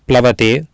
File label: "plavate", Sanskrit